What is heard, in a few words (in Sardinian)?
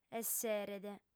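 "esseret", female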